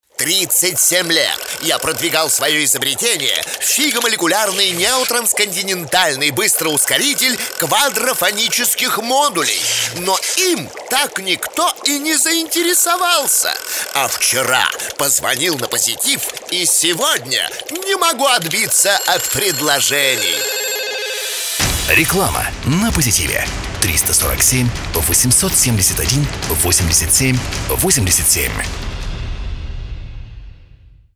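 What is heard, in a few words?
Reklama mix, advert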